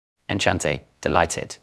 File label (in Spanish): Voice Acting es M